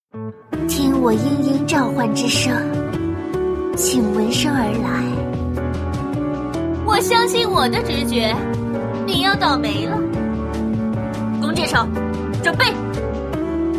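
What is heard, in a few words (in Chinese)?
qingnian nv, young woman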